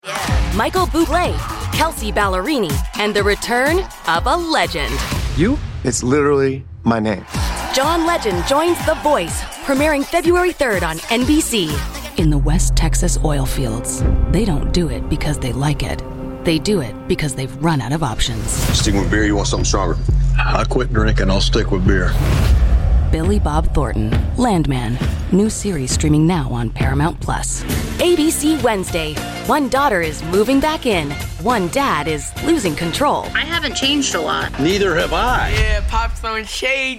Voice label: english canadian promos

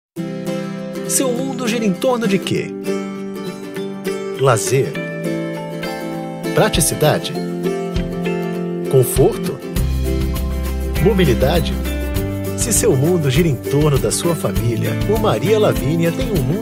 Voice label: portuguese brazilian real estate

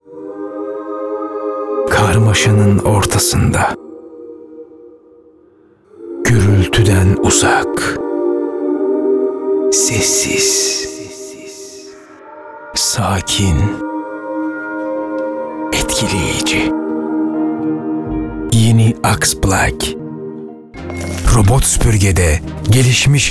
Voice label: turkish corporate videos, turkish voice actors

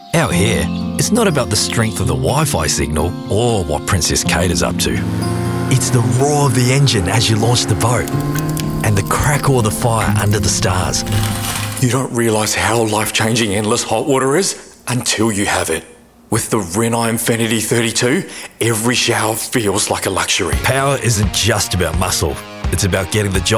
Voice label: english australian commercial

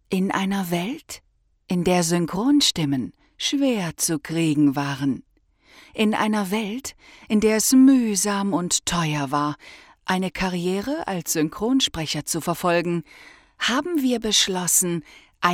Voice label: german movie trailers

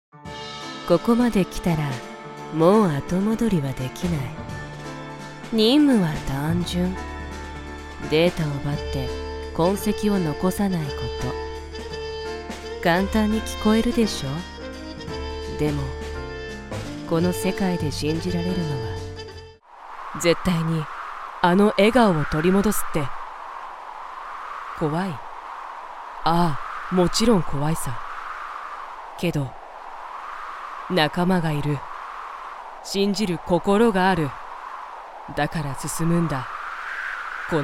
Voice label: japanese animation, japanese voice actors

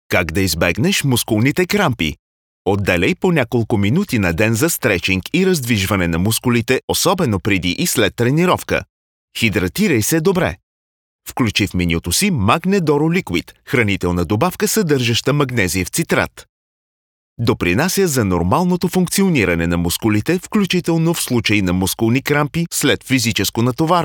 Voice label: bulgarian explainer videos

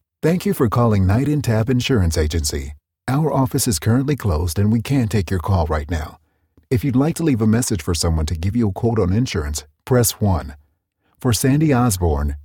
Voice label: english american ivr

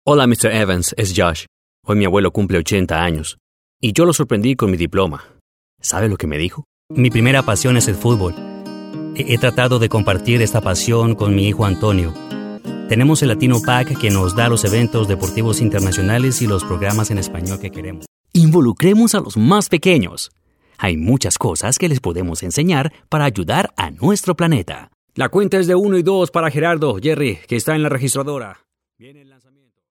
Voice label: spanish colombian political ads

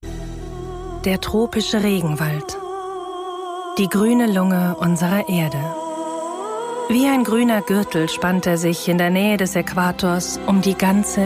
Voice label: german documentaries

